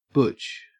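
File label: "butch", Australian English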